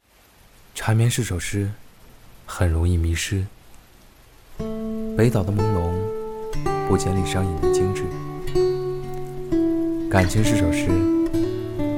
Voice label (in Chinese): 抒情